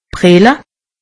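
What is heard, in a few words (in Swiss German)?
"brille", Alsatian; medecine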